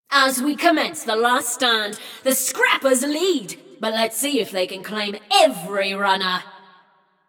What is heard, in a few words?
Scrappers Lead, Announcer; Flux; Scraprun